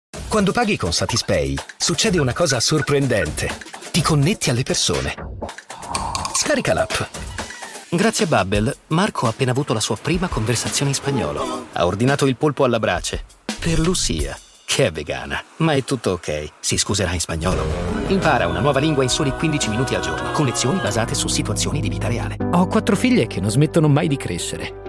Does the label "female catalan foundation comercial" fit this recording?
No